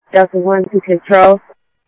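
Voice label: Light Rail Security Light Rail, scanner audio trunk; ThompsonPeakHigh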